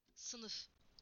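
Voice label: "classroom", Turkish; PictureDictionary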